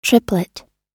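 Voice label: "triplet", US English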